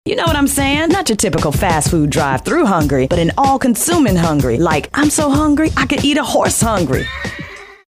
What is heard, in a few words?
urban